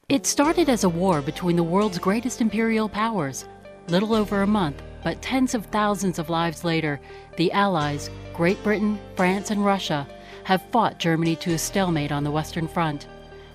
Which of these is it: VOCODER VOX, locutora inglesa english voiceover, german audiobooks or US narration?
US narration